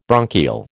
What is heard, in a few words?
"bronchial", American